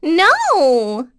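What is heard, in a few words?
Vox Deny, voices heroes English; Pansirone; kings raid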